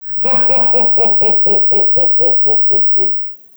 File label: Vintage Ho Ho Ho; christmas